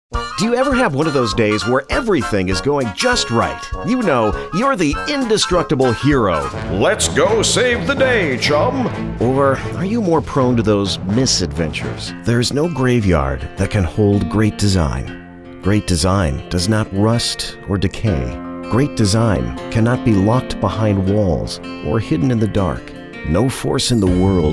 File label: commercial